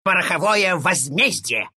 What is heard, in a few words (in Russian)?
attack ru; dota2